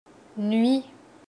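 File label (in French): "nuit", French; ɥ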